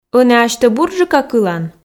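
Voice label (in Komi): ӧні асьтӧ бурджыка кылан, Komi, female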